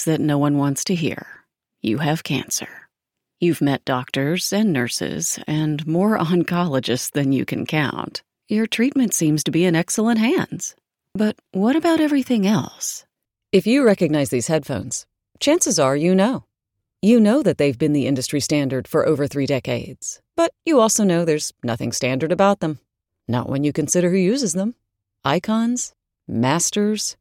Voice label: US Canadian Authentic Real Person VO samples